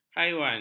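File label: "haiwan", Malay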